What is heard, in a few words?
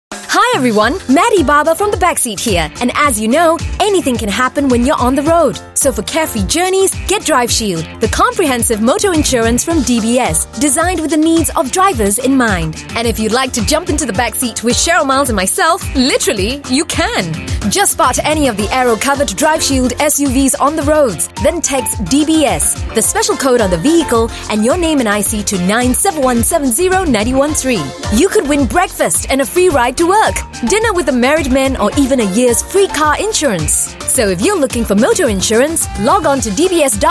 Friendly, English Singaporean Female; DBS Driveshield